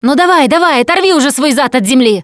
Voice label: revive friend loud, Russian; Producer

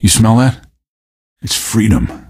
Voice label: question, male; Garry's Mod; hl2

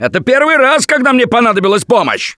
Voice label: call for rescue, russian; Gambler

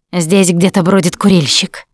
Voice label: heard smoker, russian; Producer

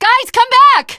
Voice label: teengirl, voice; Left 4 Dead 2; tank

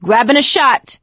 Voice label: adrenaline, survivor voice; Left 4 Dead 2; producer

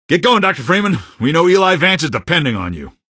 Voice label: citizen, male; Garry's Mod; hl2; odessa

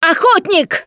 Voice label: warn hunter, russian, Survivor Voice Producer

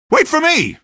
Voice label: squad reinforce single, male; Garry's Mod; hl2

